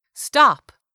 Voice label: "stop", English word; 3000 words